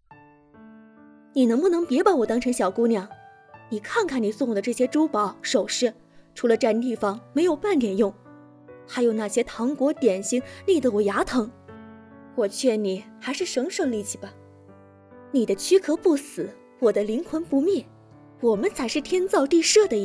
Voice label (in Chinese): 角色音萝莉音